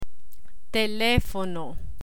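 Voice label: "telefono", Spanish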